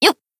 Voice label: Battle Shout; Kazusa